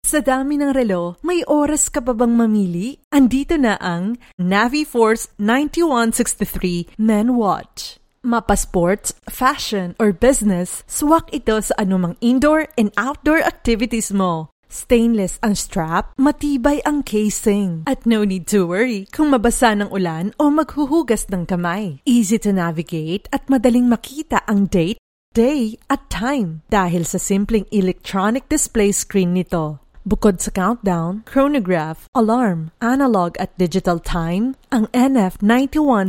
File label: Filipino